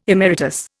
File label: "emeritus", Indian